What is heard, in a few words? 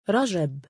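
"rajab", Arabic, male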